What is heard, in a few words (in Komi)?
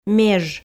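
"меж", Komi, female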